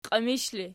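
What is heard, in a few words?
"qiimish" ergative, Archi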